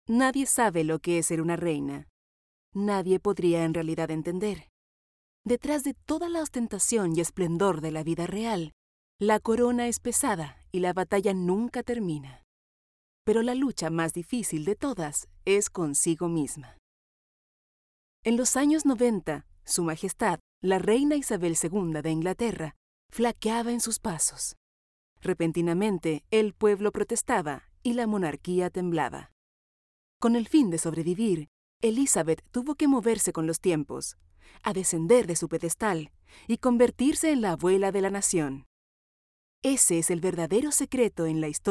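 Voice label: latam female narration; voice demos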